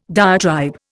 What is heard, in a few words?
"diatribe", Indian